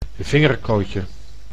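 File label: "vingerkootje", Dutch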